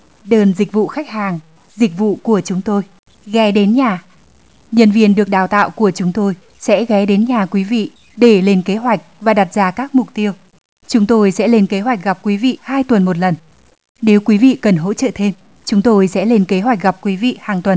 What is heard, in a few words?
Our service Vietnamese